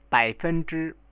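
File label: "baifenzhi" Chinese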